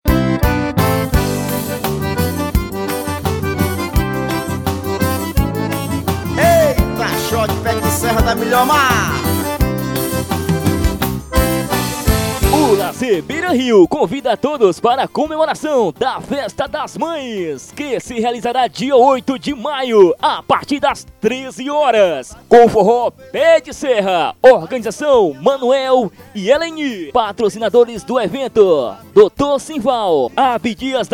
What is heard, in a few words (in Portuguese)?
vinheta locutor